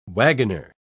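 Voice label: "wagoner", British English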